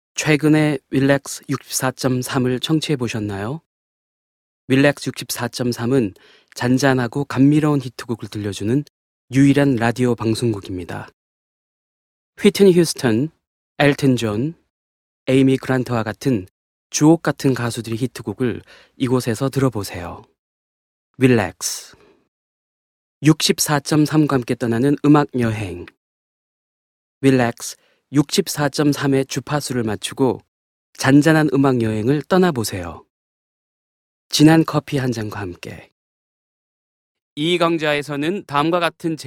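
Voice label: Korean General; GoLocalise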